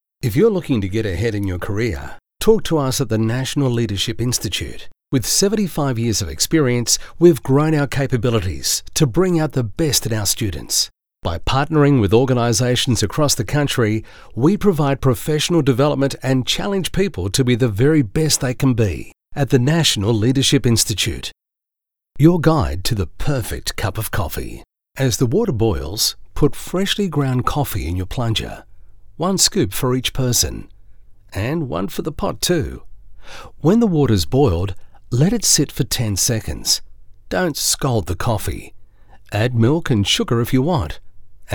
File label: Warm Friendly